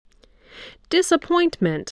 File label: "disappointment", english spelling